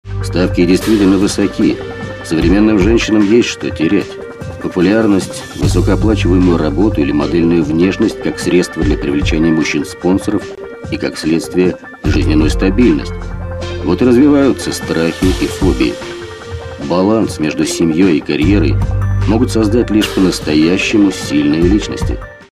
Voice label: russian male

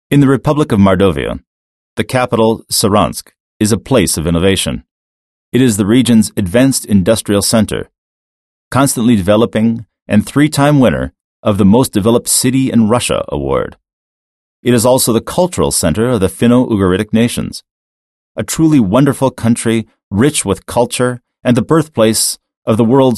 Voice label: american english male

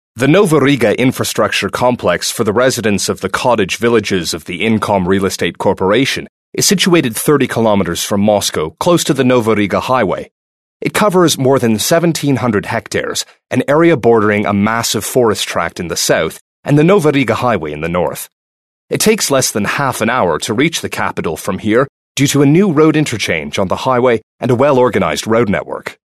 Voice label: american english male

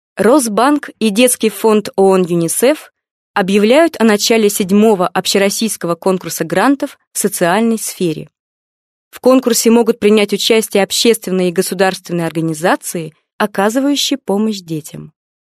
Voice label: russian female

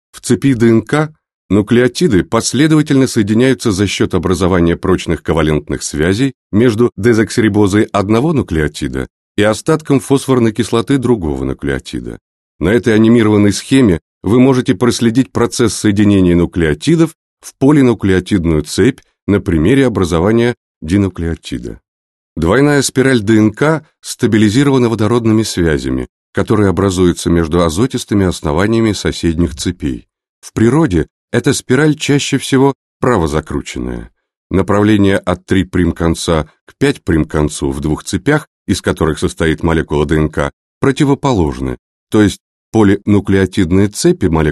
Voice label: russian male

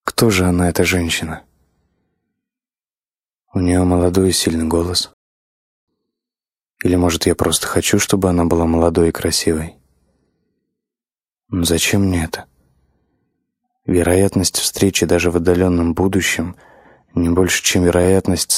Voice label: russian male

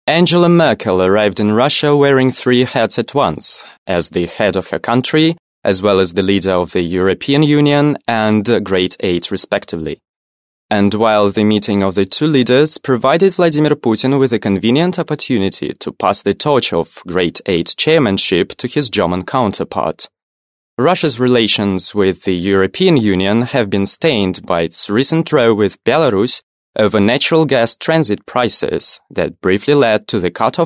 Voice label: british english male

